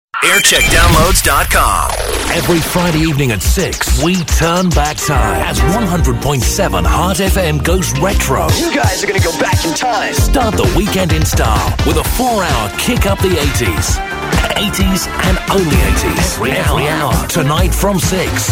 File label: 80s Promo; Heart FM; 1999